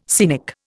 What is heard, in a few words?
"scenic", Indian